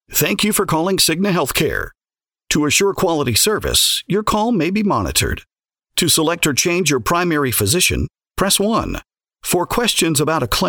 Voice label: Phone